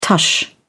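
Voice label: "tush", British English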